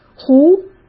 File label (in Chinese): "糊", Chinese; hsk